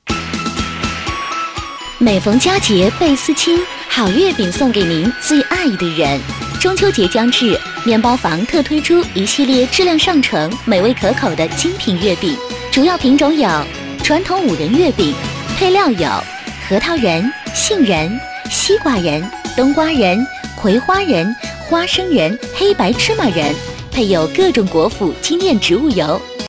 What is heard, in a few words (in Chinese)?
好月饼 欢快